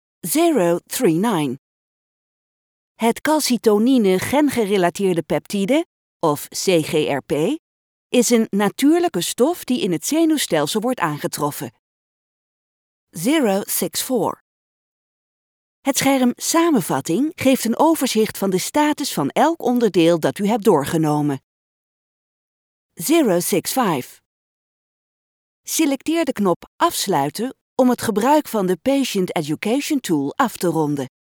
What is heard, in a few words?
elearning clinical Study